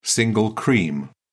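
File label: "single cream", British English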